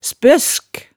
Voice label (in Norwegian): "spøsk", hemsedalsmaalet